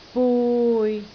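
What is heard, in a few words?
"bui6", Cantonese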